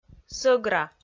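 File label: "сӧгра", Komi, female; audio dict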